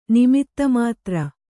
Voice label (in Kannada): "ನಿಮಿತ್ತಮಾತ್ರ", kannada